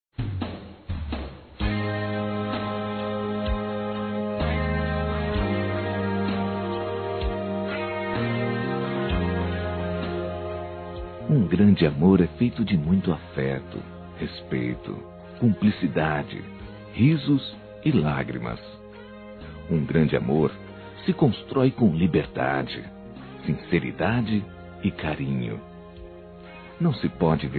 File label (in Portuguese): NAMORO M